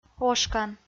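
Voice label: "ошкан", Komi-Zyrian, female; audio dict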